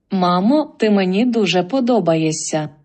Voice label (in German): Ukrainisch